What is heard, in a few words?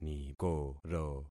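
"nikoro", concatenated syllables; Affixing experiment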